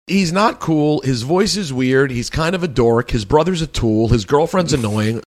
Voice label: funny